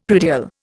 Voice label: "predial", Indian; Dictionary